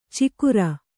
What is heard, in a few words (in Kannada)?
"ಚಿಕುರ", Kannada; cikura; noun